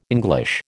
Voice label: "English", American